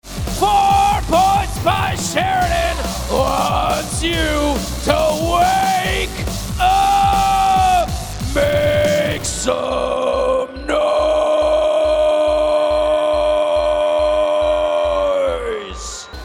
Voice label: Wake Up Make Some Noise